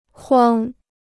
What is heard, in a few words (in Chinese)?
"筐", Chinese